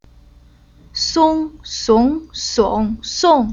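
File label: "Song", Chinese syllable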